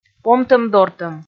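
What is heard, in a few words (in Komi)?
"помтӧм-дортӧм", Komi, female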